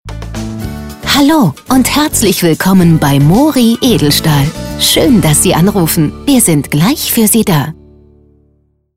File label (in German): Ansage vor Melden Stereo; Mori Edelstahl